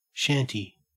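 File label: "shanty", Australian English; pronunciations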